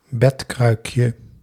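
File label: "bedkruikje", Dutch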